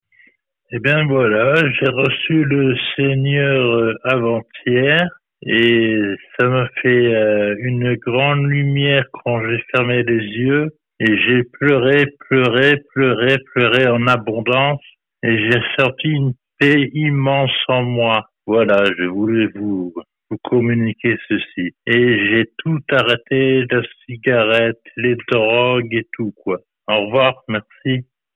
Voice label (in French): Témoignage de conversion sur Radio Gospel